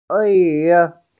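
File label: "can", Mandarin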